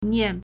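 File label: "nian4", Mandarin Chinese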